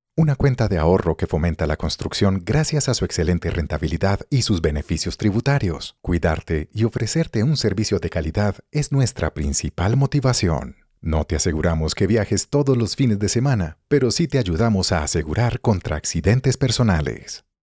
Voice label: spanish latin american narration

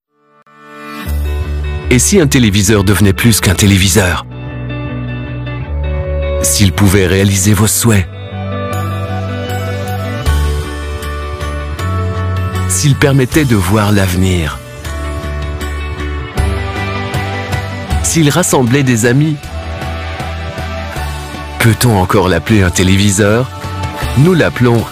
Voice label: French commercial

